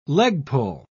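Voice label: "leg-pull", British English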